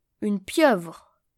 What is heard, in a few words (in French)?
pieuvre, sounds-fr